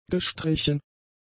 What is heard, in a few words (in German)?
"gestrichen", german; adjectives